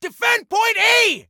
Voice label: DEFEND, Male; T2 Male 03